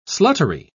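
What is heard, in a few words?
"sluttery", English, British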